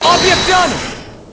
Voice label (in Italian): "Obiezione", Italian